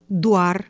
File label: "dwar", Iron Ossetian